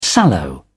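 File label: "sallow", British English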